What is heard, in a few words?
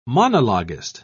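"monologuist", British English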